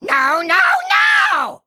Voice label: Male Demon Attack